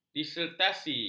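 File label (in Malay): "disertasi", Malay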